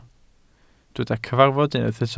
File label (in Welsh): "cyfarfod" Welsh